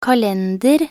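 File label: "kalender", Norwegian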